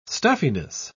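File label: "stuffiness", English, uk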